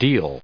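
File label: "deal", US English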